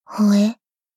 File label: shock